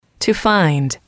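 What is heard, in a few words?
"to find", English, female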